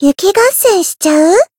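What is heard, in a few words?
Voice variable chant, Japanese; yukino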